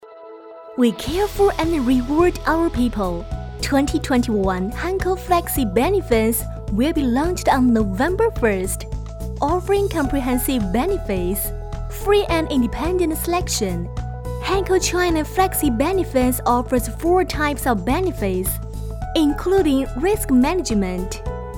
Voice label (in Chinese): 英文